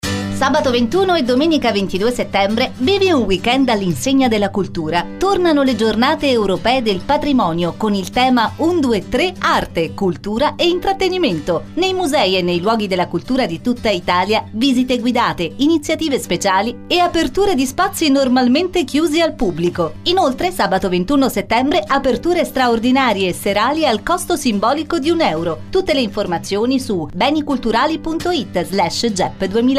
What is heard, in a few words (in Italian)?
spot radio